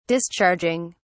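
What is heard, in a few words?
"discharging", English, British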